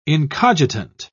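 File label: "incogitant", British English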